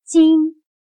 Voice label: "巾", Chino

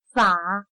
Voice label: "法", Chino

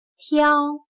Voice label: "挑", Chino

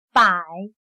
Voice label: "百", Chino

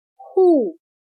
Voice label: "护", Chino

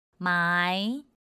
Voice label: "mái", Chino

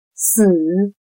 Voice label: "死", Chino

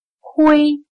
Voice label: "灰", Chino

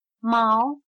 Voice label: "毛", Chino

